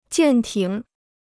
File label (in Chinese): "舰艇", Chinese